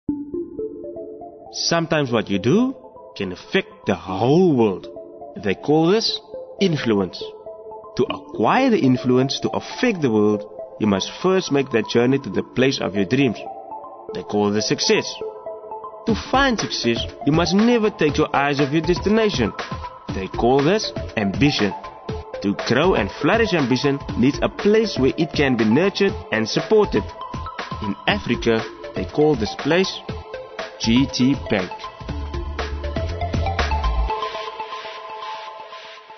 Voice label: Corporate